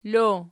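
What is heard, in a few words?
"lo" nominative, Archi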